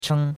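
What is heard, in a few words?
"cheng1", Chinese; Cours-de-Chinois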